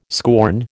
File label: "scorn", American